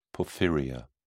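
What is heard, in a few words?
"porphyria", British English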